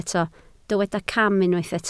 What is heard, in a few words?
"cam", Welsh